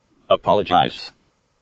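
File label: "apologize", American English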